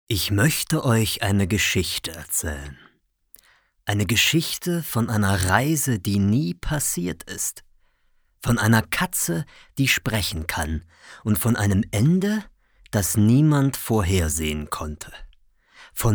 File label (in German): hoerbuch, sprecher